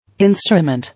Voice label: "Instrument", English

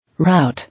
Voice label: "Route", English

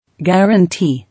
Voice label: "Guarantee", English

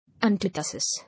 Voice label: "Antithesis", English

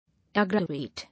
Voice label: "Aggravate", English

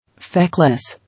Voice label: "Feckless", English

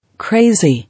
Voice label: "Crazy", English

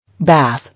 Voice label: "Bath", English

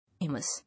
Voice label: "Immerse", English

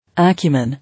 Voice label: "Acumen", English

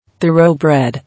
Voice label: "Thoroughbred", English

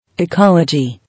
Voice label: "Ecology", English

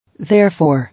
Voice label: "Therefore", English

